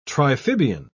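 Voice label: "triphibian", British English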